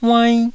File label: "uainn1", taiwanese